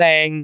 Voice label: "beng3", cantone; google D